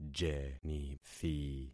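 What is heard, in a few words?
"jenithi", concatenated syllables; Affixing experiment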